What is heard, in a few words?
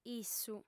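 "issu", female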